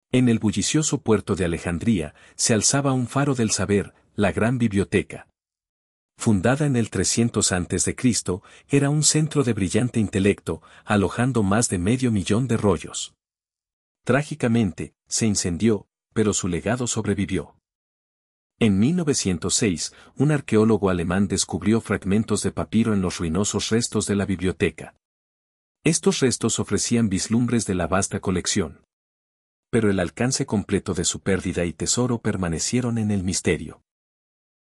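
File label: voiceover, Spanish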